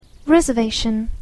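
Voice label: "reservation", English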